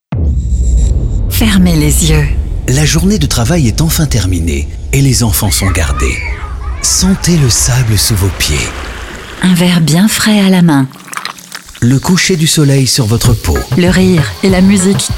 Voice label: promo radio